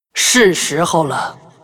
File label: Hero female demon hunter what, zh